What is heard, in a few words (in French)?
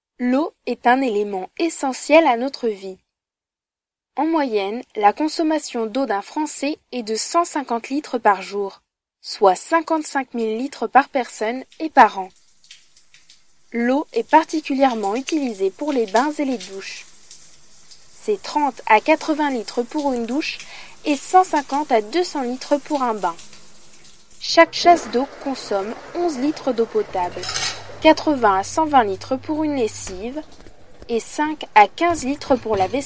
documentaire l eau a la maison